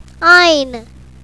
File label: "ein", Arabic